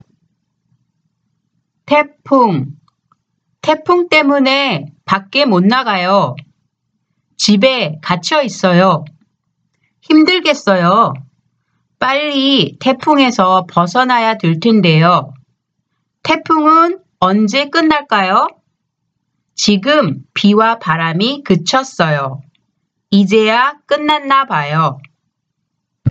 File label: conversation; K-Pop Volume 3